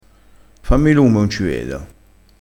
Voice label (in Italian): "lume", crespinese